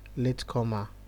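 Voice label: "latecomer", Nigerian Pidgin, male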